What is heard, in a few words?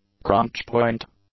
"crunchpoint", English; nouns